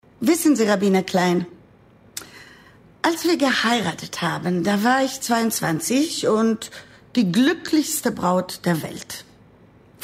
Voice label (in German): Hoerspiel; Kains Opfer